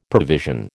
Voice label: "provision", American